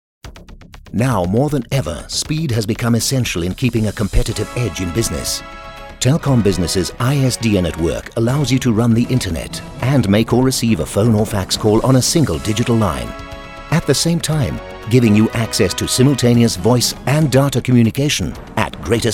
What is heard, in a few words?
CORPORATE HARD SELL